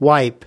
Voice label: "wipe", us

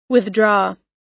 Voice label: "withdraw", us

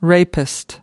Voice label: "rapist", us

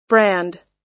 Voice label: "brand" US

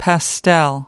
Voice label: "pastel", us

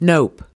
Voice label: "nope", us

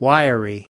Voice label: "wiry", us

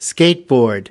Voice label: "skateboard", us

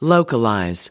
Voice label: "localize", us

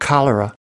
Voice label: "cholera", us

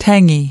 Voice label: "tangy", us

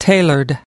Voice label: "tailored", us

